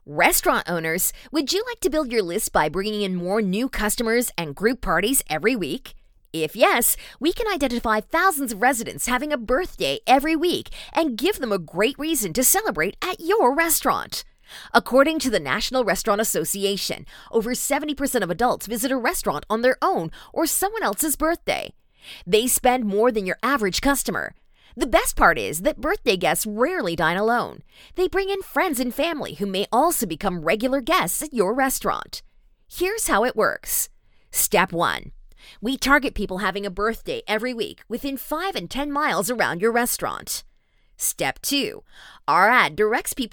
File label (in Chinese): 轻快活力, 宣传